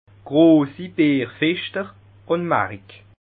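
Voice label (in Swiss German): "bierfeschter", Bas Rhin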